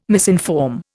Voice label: "misinform", Indian

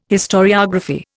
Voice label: "historiography", Indian

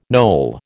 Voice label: "Noel", American

